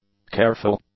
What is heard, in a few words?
"careful", English; adjectives